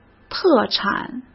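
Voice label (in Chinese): "特产" Mandarin Chinese; hsk; chinese-flashcards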